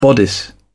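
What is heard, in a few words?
"bodice", British English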